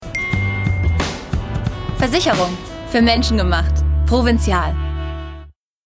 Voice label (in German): Werbung Versicherung; LOFT VOICES